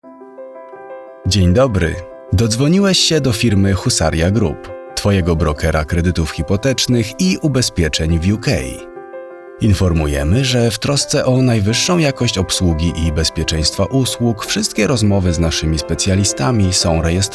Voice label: IVR; Husaria; Demo